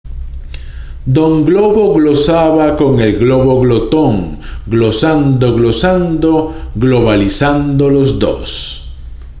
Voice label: GLOBO GLOSABA, spanish tongue twisters; 46 Spanish Tongue Twisters To Perfect Your Pronunciation